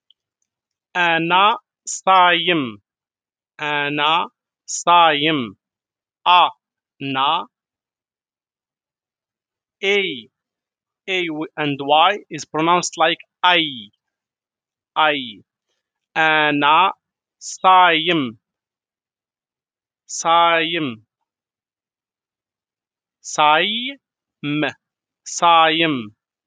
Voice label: im fasting for a man in moroccan arabic vocabulary darija